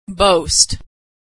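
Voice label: "boast", American English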